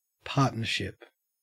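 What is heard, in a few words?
"partnership", Australian English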